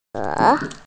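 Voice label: "zhe2", Mandarin Chinese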